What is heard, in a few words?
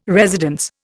"residence", Indian